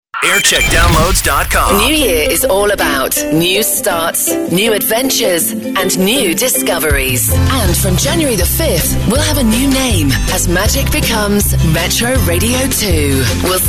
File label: promo; magic metro